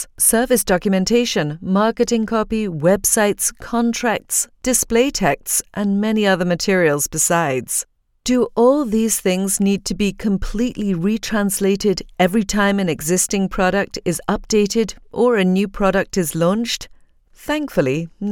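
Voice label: English international female info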